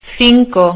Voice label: "cinco", spanisch